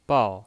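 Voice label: "bao", Mandarin Chinese, fourth tone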